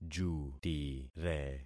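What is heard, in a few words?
"jutire", concatenated syllables; Affixing experiment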